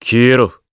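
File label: Vox Skill, voices, Japanese; Riheet; kingsraid; voices heroes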